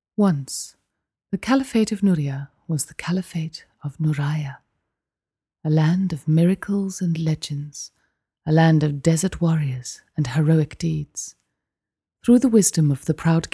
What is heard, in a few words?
Audiobook